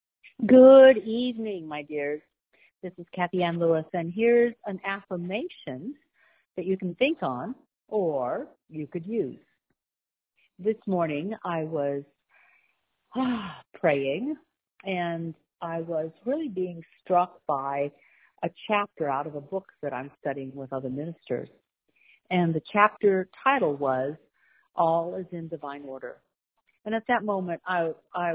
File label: voicemail